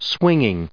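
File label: "swinging", US English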